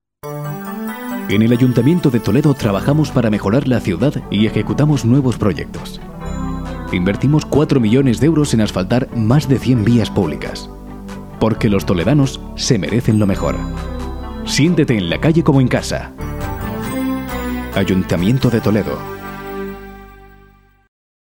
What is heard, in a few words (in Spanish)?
locutor espanol